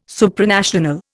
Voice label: "Supranational", Indian

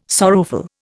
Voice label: "sorrowful", Indian

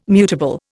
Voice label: "mutable", Indian

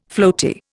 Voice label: "floaty", Indian

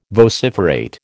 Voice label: "vociferate", American

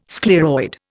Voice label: "scleroid", Indian

